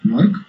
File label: "who", Basque